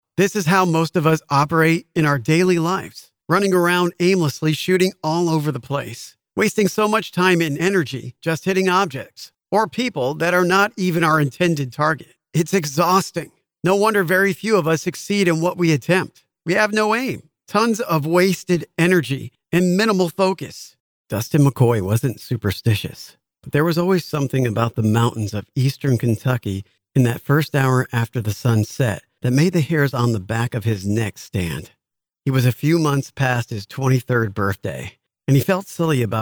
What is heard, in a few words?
Academy Voices Audiobook